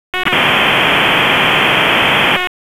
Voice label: PS TAC, p25

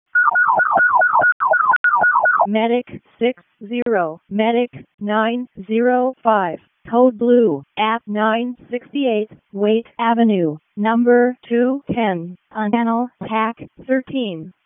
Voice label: EMS ALERT, p25; wake

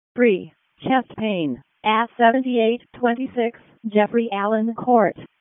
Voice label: EMS ALERT, p25; Wake

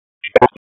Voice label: Police North District Tac 1, p25; Raleigh

